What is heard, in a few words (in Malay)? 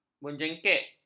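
"menjengkek", Malay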